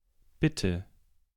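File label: "Bitte", German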